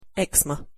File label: pronunciation en "eczema"